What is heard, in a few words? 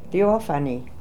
"Theophany", pronounciations; Fourth Way; Beelzebub